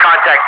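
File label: "contact", English speech, Wingman; Messages; DCS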